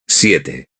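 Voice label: "siete", Spanish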